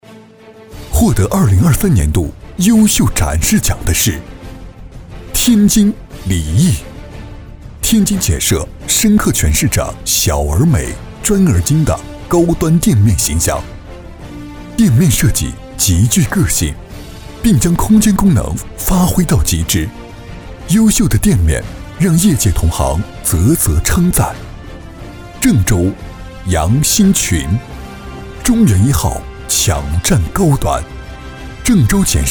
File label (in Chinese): 男颁奖